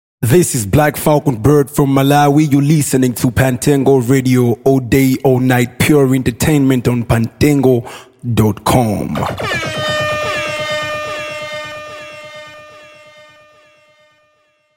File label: radio; pure entertainment